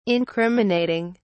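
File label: "incriminating", English, British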